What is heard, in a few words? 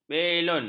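"belon", Malay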